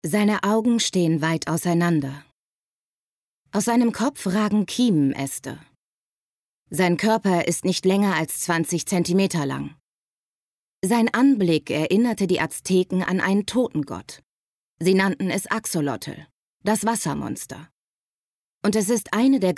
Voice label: Dokumentarischer Text; LOFT VOICES

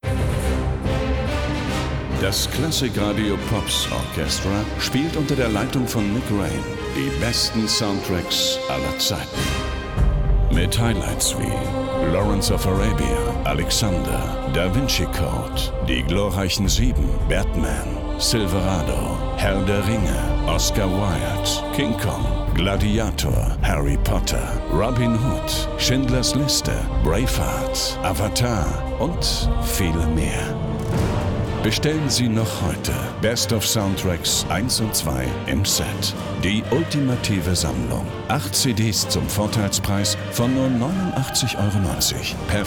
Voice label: radio; klassikradio

